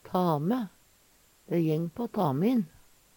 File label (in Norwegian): "tame", Numedalsmål; Substantiv hankjønn